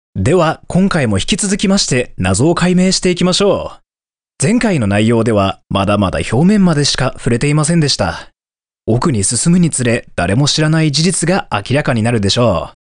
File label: Japanese, Male